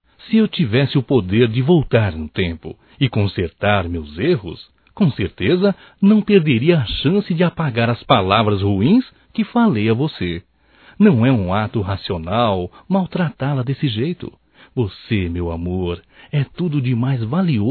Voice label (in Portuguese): PERDÃO M